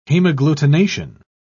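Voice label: "hemagglutination", British English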